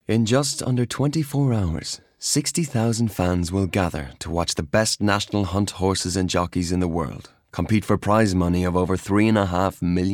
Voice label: Narration